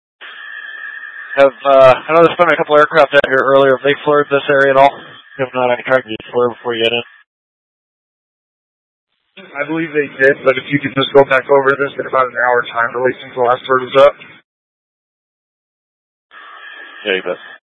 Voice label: Mutual Aid-Intersystem Interop B, scanner

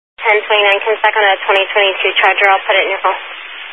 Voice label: Highway Patrol, Phoenix Metro East Area, scanner

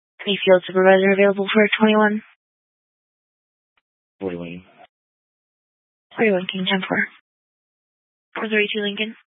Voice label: Police Dispatch

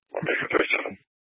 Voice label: Mesa PD Central Dispatch, scanner; Mesa Simulcast

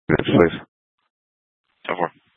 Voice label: Dispatch; Scottsdale

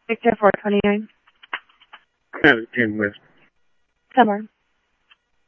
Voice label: Tempe Police, scanner audio; TEMPE PD A04

